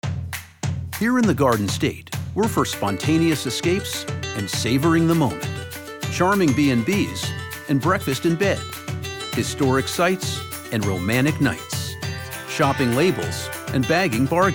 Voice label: Radio; NJ Tourism; Weekend